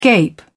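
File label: "gape", English, British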